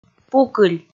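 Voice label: "пукыль", Komi, female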